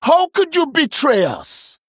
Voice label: Male Troll Emote Response; Brukan